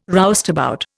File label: "roustabout", Indian